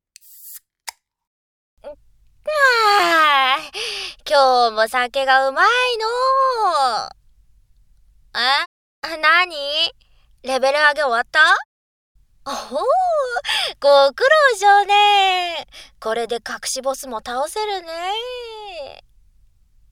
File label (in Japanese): 耳舐めでしゅこしゅこ（左選択）_ふぃにっしゅ左（手コキ音なし~ノイズ除去なし）; 酔いどれお姉ちゃんととれーにんぐ; ダミーヘッド&ダミーボディマイク使用